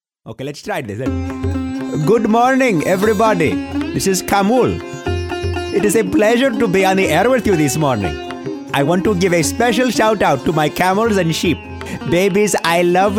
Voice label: Men Animation